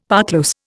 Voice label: "parclose", Indian; Dictionary